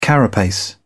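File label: "carapace", English, British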